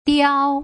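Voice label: "diao", Putonghua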